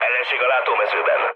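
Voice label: enemy detected, mood low, Hungarian; speech; War Thunder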